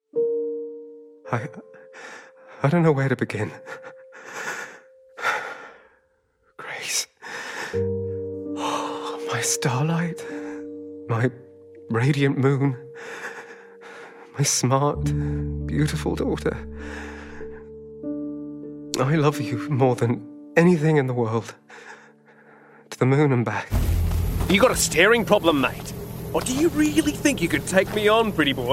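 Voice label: Australian English Gaming; GoLocalise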